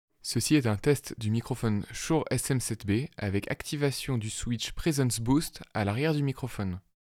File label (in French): SM7B voix presence boost